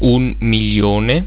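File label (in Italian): "milione", Italian; getal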